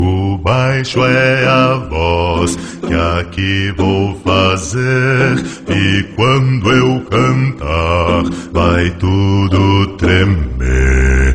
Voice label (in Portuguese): voz baixo